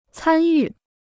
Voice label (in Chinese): "参与", Chinese